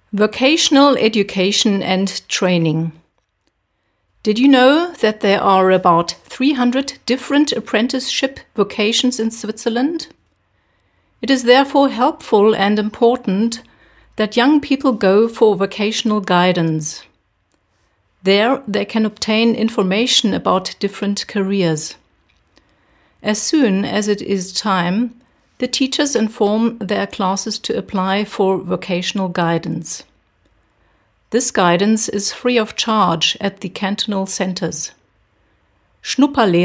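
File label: English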